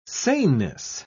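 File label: "saneness", British English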